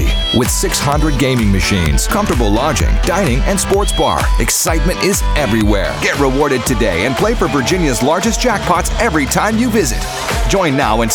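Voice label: casino, American Male Voice; casino voice over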